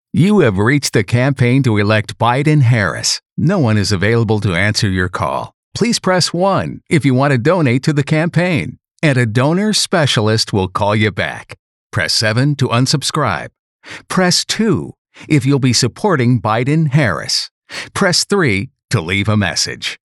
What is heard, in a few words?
On-Hold; BIDEN-HARRIS 2020